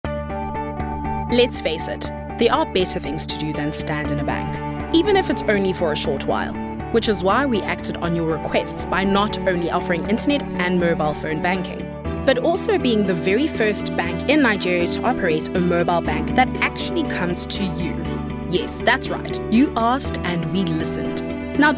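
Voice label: English Corporate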